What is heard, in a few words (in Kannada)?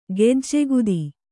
"ಗೆಜ್ಜೆಗುದಿ", Kannada